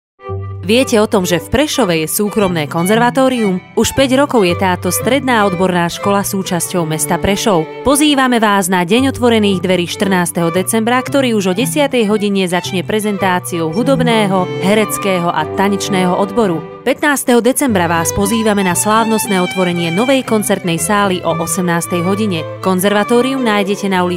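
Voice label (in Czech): reklami spot; konzervatoriumpresov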